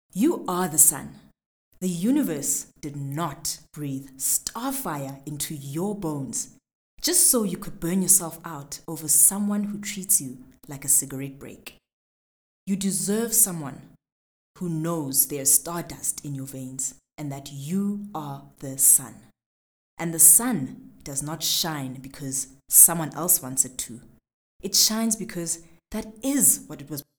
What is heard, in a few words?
English Read